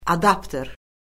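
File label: "adapter", Azerbaijani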